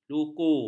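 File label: "luku", Malay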